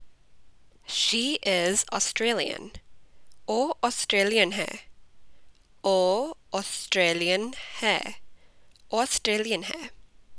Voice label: Punjabi She is Australian